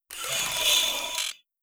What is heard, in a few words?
robot fungoid greetings; Stellaris; synthetic dawn